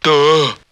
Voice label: "duh", cartoon; ooh ahh